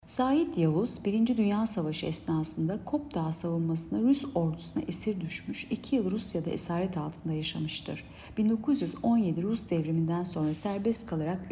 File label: turkce